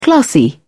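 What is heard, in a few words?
"classy", English, uk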